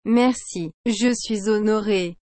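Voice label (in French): "Merci. Je suis honoré", French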